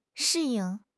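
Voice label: "shi4 ying4", Mandarin Chinese; HSK4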